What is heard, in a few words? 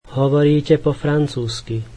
you speak French, slovak